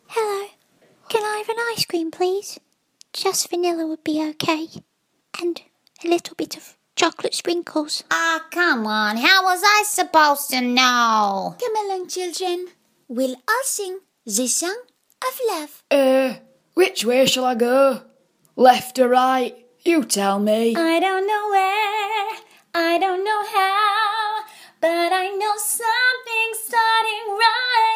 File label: Animation